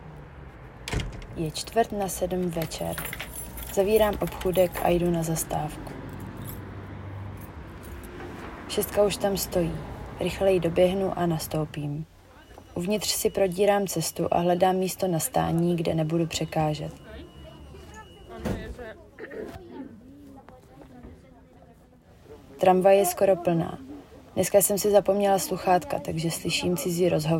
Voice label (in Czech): Narace